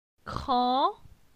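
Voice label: "Cran", French; phonetique; la syllabe an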